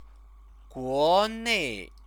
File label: "guonei", Chinese